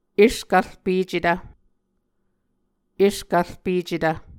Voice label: "eskvrpecetv", Muscogee